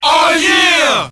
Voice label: "ah yeah" group of men; ooh ahh